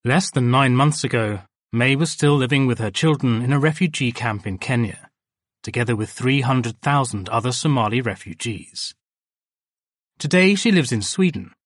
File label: English M Charity Aid